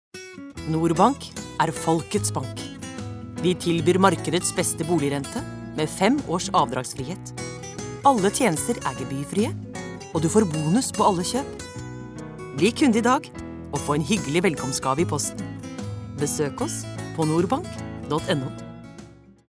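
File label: Norwegian commercial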